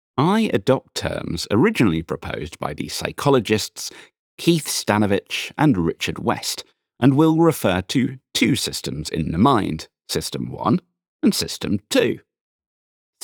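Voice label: Non-Fiction Sample, British Male Audiobook Narrator, British RP voice; Thinking, Fast & Slow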